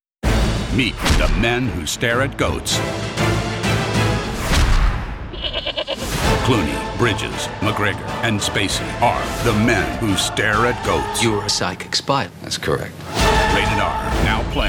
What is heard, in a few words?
trailer